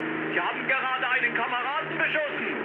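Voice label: German player killed ally mood high, speech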